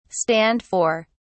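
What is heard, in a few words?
"stand for", British English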